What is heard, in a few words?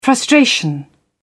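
"frustration", British English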